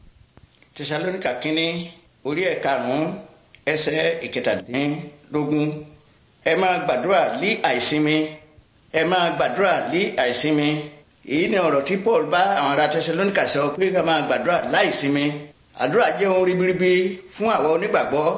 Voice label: words of life yoruba; yoruba bible